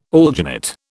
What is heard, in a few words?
"alginate", British